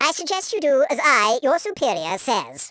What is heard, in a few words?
orders, speech, Patronising